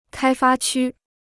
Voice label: "开发区", Chinese

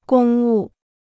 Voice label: "公务", Chinese

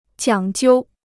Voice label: "讲究", Chinese

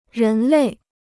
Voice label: "人类", Chinese

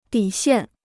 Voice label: "底线", Chinese

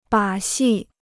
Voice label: "把戏", Chinese

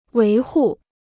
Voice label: "维护", Chinese

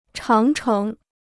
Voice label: "长城", Chinese

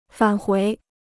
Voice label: "返回", Chinese

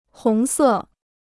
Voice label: "红色", Chinese

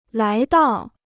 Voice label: "来到", Chinese

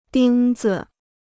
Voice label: "钉子", Chinese

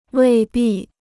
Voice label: "未必", Chinese

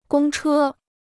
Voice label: "公车", Chinese

